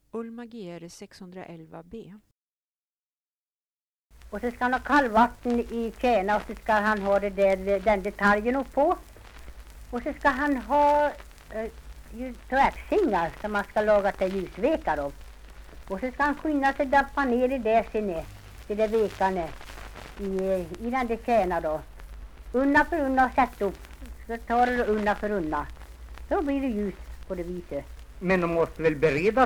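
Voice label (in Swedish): Arbrå Hälsingland, dialekter; Folkminnen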